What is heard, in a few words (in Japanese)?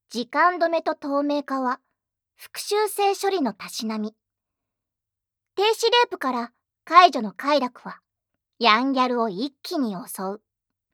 タイトルコール, ASMR